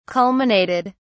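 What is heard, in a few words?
"culminated", British English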